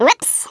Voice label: "oops", wacky speech